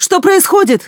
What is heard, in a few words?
enemy, characters voice human woman fight